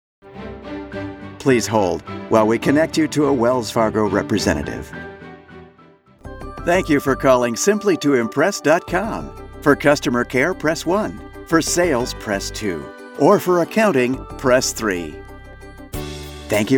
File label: IVR Mono